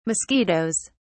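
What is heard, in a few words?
"mosquitos", British English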